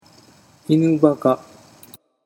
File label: "inubaka", Japanese